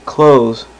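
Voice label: "close" male; voice; Web Clip Empire 150,000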